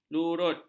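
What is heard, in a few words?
"lurut", Malay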